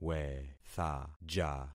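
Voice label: "wethaja", concatenated syllables; Affixing experiment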